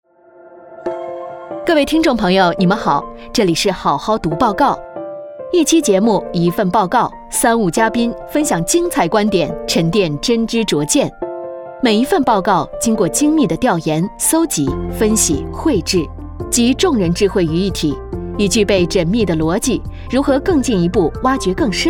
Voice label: 主持人播报经济栏目; 好好读报告

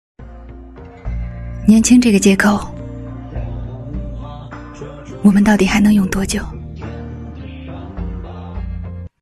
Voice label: 影视配音; 年轻这个借口我们还能用多久

